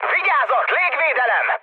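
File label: Hungarian damaged aa mood med, speech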